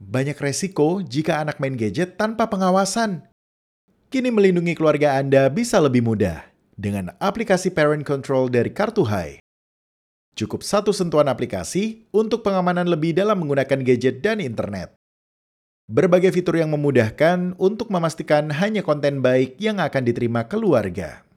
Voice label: Casual Indonesia